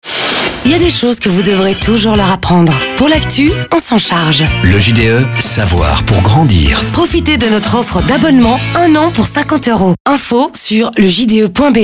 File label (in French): Voix francophone